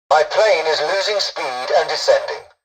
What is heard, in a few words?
tutorial dialogue, english; War Thunder